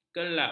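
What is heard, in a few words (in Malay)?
"kerlap", Malay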